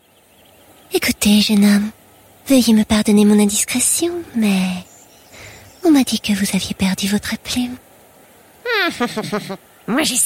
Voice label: french France female